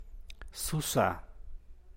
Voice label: "susaa", San Mateo Macuilxochitl Zapotec; open; abierto